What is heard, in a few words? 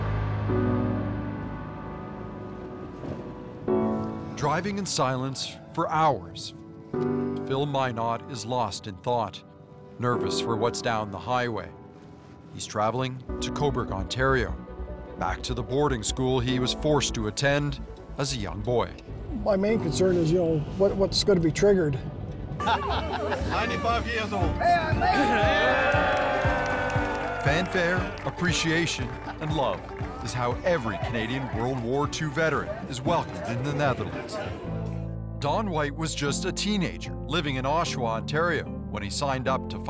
documentary voice overs